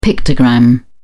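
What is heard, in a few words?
"pictogram", British English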